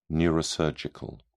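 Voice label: "neurosurgical", British English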